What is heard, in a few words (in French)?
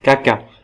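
"caca", French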